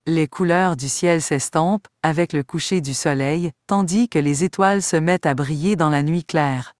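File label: Canadian French; fr-CA; iOS Sounds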